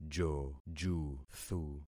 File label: "jojuthu", concat syllables; Affixing experiment